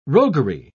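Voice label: "roguery", British English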